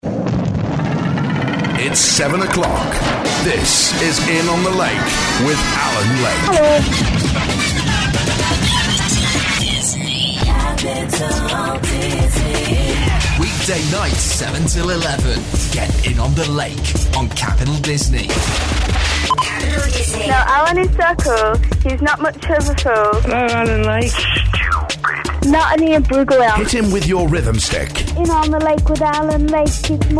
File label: Voiceover